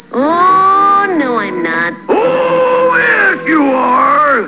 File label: oh no, cartoon